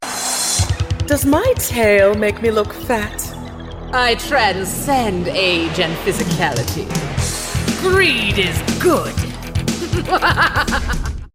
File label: female villain sultry sexy powerful wry seductive evil maniacal nemesis